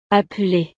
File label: "appeler", francés